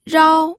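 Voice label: "rao", Mandarin Chinese, first tone; pinyin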